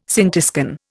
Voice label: "scintiscan", Indian